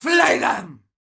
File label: Female Taunt, Cannibal, dialogue